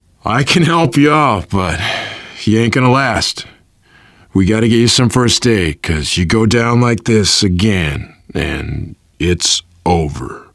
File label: revive critical friend, voice, biker